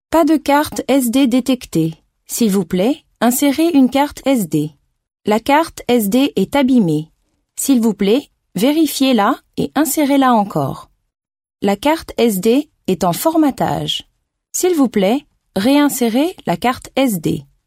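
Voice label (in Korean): 프랑스어 신뢰/묵직; Voice actor sample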